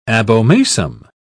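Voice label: "abomasum", British English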